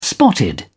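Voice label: "spotted", British English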